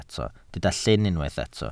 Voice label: "llyn", Welsh